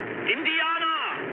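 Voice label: German enemy detected mood low, speech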